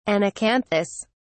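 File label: "anacanthous", British English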